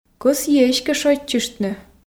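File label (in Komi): кӧсйи эськӧ шойччыштны, Komi, female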